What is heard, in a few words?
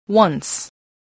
"once", voice, spelling